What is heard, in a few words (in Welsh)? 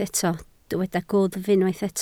"gwddf", Welsh